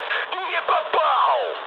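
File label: Russian bomb failure mood high, speech; War Thunder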